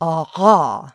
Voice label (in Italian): Fricativa epiglottale sonora; IPA